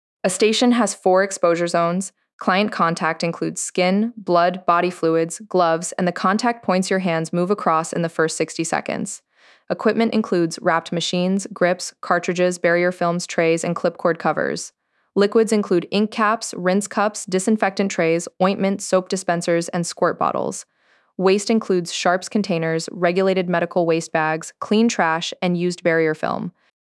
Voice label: calm mentor; exposure zones; bloodborne pathogens; compliance